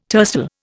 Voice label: "tercel", Indian